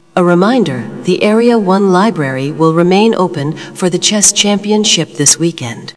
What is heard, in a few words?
tride announcement